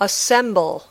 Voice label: "assemble", English